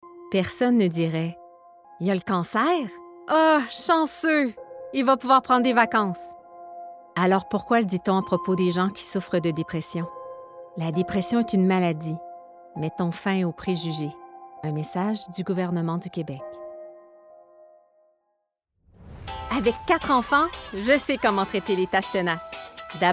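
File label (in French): Démo voix pub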